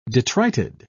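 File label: "detrited", British English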